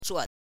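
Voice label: "zhuo", Mandarin Chinese, neutral tone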